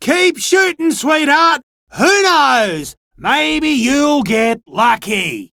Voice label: Dialog Barks Battle, en; Warframe; Chipper

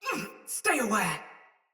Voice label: Block Soldier Mace Agent Female; Battle; Sinecure Melee

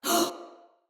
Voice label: Surprised, Female; Battle; Barks; Dialog; Sinecure Soldier Female B

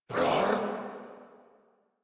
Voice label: Surprised Corrupted Grineer Male

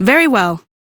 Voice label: Follow, Female; en; Warframe; Railjack Crew; Cephalon Suda

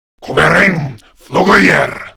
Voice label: Cover Me, Grineer Male; Warframe; Battle Barks

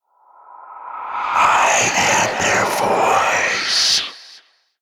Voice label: Whispers

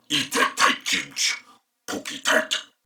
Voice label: Patrol Male, en; Corpus Venus; Warframe; CorpusVenusMaleB

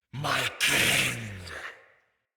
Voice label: Death Knight Male; Mendicant

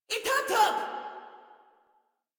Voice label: Cover Me Female; Warframe; Modular Corpus Female

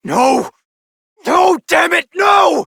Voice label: Adult Op, en; Warframe; New War

